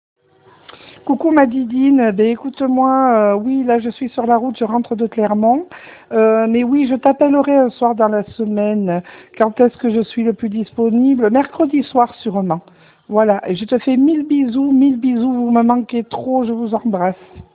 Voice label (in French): Message vocal